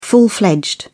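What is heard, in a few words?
"full-fledged", British English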